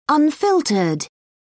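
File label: "unfiltered", English, uk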